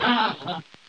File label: jibjab PAIN, Lo-Fi Jibber Jabber